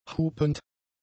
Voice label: "hupend", German; adjectives

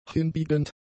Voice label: "hinbiegend", German; adjectives

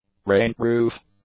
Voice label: "rainproof", English; adjectives

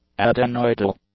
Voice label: "adenoidal", English; adjectives

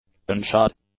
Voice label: "unshod", english; adjectives

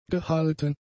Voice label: "gehalten", German; adjectives

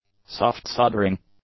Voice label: "soft soldering", english; nouns

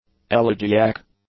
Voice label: "elegiac", English; adjectives

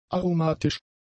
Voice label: "aromatisch", German; adjectives

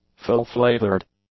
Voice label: "full-flavoured", English; adjectives

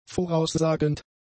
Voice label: "voraussagend", German; adjectives

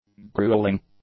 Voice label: "grueling", english; adjectives

